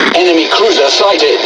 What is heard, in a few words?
Speech Detection Enemy Cruiser, EN GB; World Of Warships